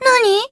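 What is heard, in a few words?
story, Japanese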